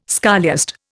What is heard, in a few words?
"scholiast", Indian